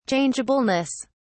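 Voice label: "changeableness", British English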